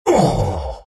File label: pain; Visage